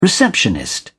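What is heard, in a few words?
"receptionist", British English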